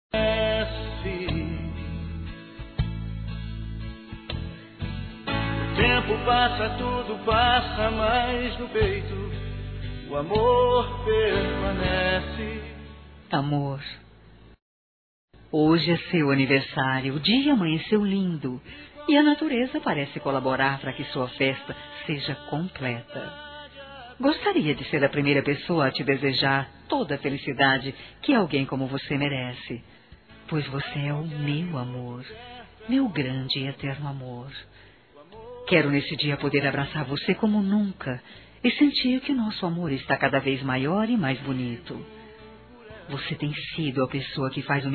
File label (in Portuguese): aniversario namorado, fem